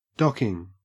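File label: "docking", Australian English; pronunciations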